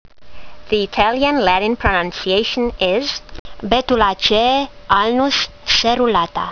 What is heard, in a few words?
latin; Alnus serrulata